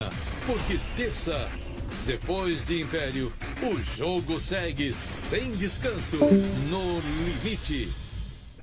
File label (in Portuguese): comercial; globo